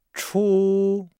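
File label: "chu", Chinese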